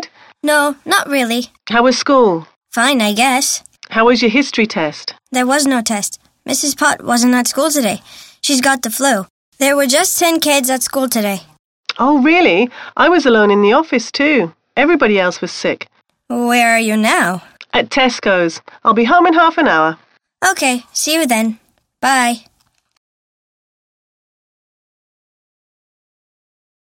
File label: TELEPHONE CONVERSATION; Osnovna skola; 6. razred; Skolska knjiga; Engleski jezik; Dip in 6